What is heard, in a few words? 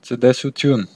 goodbye, armenian western